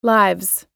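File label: "lives", US English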